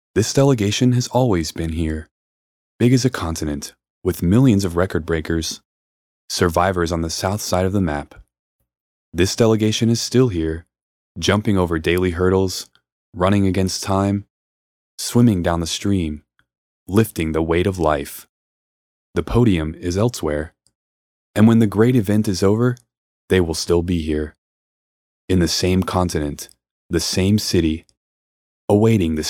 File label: Sample Voiceover Text